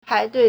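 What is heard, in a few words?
"pai2dui4", Mandarin Chinese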